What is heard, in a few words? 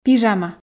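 "пижама", Komi, female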